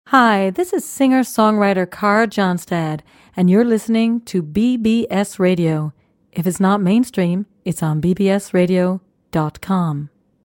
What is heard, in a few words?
bbs radio promo